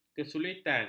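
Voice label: "kesulitan", Malay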